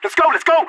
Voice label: radio lets go; player vo leet

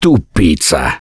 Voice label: reaction negative, russian; Gambler

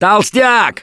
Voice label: warn boomer, russian; Voice; Gambler

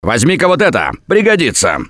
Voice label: Alert Give Item Stop, russian; Gambler

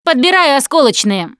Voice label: explosive ammo, russian, Survivor Voice Producer

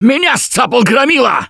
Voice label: grabbed by charger, russian, Survivor Voice

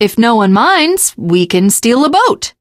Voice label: teengirl, voice; Left 4 Dead 2

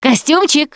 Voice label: name gambler, russian, Survivor Voice Producer

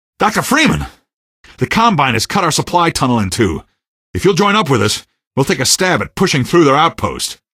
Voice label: tunnel greet, male; Garry's Mod; hl2; Streetwar

